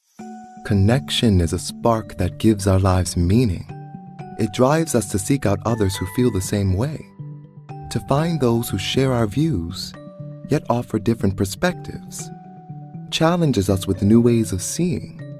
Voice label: Corporate